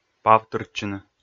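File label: "павтыртчыны", Komi, male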